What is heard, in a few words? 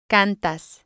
"cantas", spanish